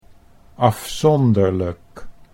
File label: "afzonderlijk", Dutch